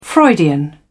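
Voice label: "freudian", British English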